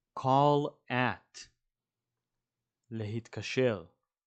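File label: "call at" English, "להתקשר" Hebrew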